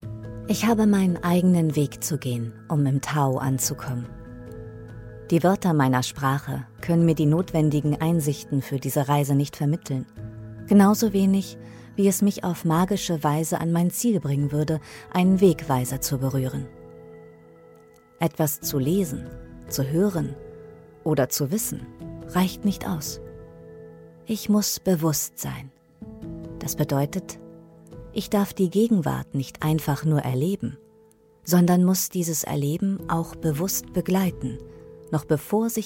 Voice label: Spot Meditativ; LOFT VOICES

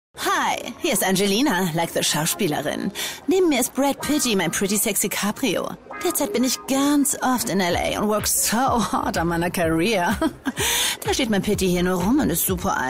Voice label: Funkspot; Car Unity

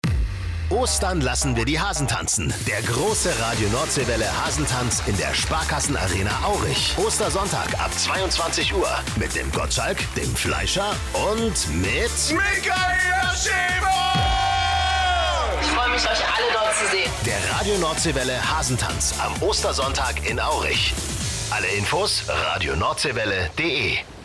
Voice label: werbung promo; LOFT VOICES

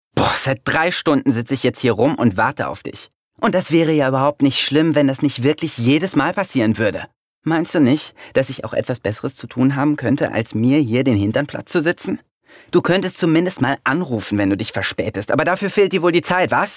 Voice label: synchron verärgert; LOFT VOICES

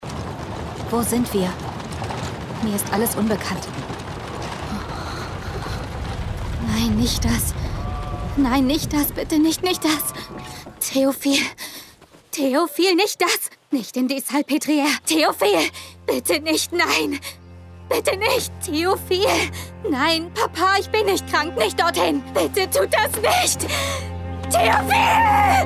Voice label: Synchron panisch; LOFT VOICES

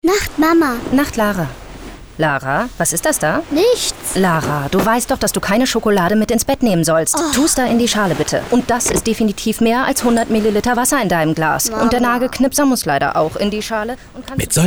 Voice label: Funkwerbung

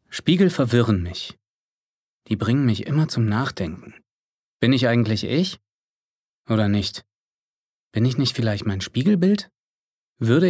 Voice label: Innerer Monolog

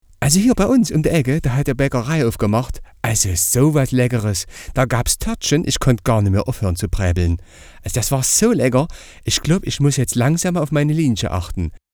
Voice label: sächsischer dialekt; LOFT VOICES

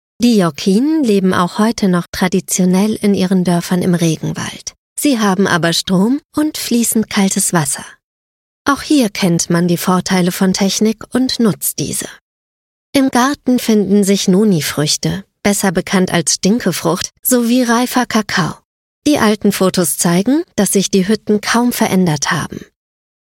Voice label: Dokumentation, Comment (Kommentar), German; Dokumentation; voice

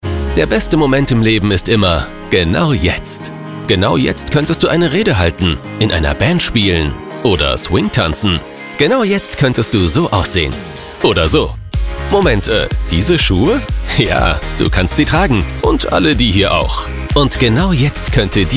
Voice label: Sprecherdemo Auto Werbung

